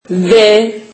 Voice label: turkish alphabet v

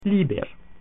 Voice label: "book", Latin

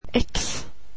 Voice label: "x", swedish alphabet

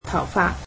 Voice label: cantonese hair

